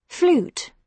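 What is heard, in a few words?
"flute", British English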